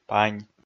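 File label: "пань", Komi, male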